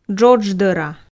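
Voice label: "джодждӧра", Komi, female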